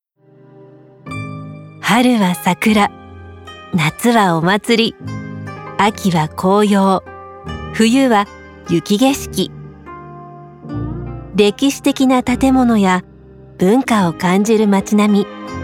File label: Commercial しっとり; bilingual voice japan